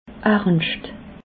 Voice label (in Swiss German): "ernscht", alsace